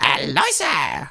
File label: "hello", Danish; Worms Armageddon; Danish